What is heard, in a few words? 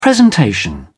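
"presentation", British English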